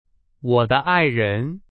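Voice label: Chinese, example sentence; hsk2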